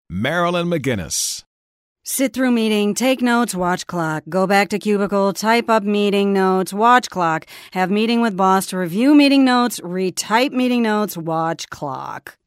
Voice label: Exasperated